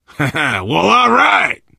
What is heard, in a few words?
player transition close, player survivor voice biker; source engine; left 4 dead 2